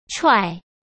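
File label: "踹", Mandarin Chinese; chinese flashcards; hsk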